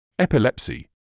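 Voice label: "epilepsy", British English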